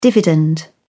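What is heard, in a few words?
"dividend", British English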